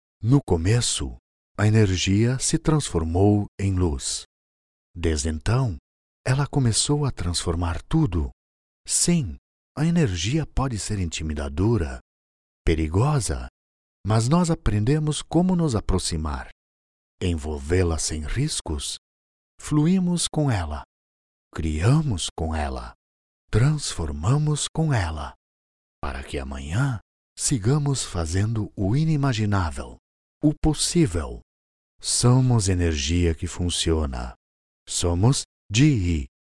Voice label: portuguese brazilian others